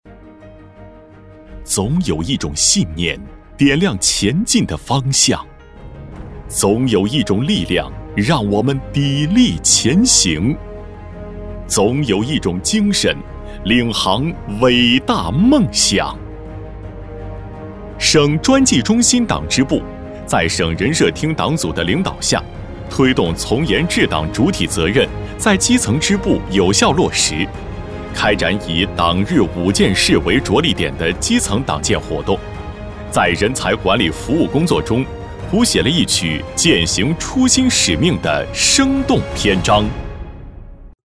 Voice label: 男 专题片 大气 党建片; 专技中心党建片

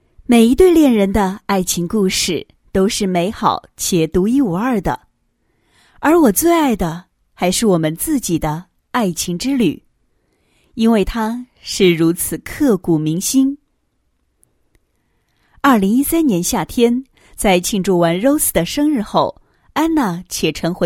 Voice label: 女 解说; 恋人的爱情故事

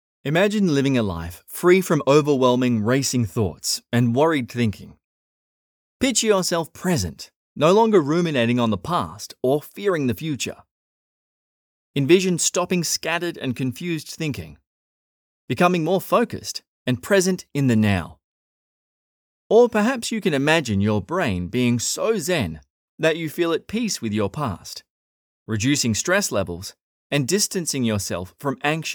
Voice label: 外籍英男 年轻磁性

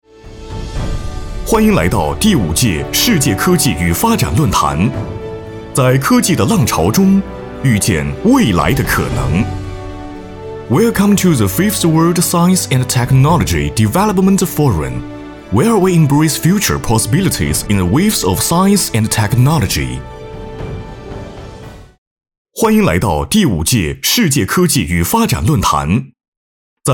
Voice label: 男 中英文双语主持

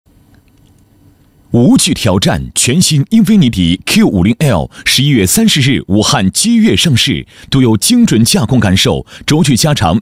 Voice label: 男 广告; 英菲尼迪

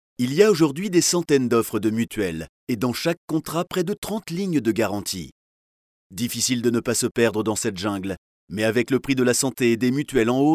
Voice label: 法语男 磁性

